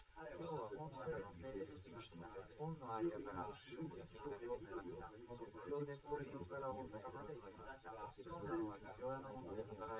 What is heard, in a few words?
male live rec 250ms 1m sim; SiSEC11; underdetermined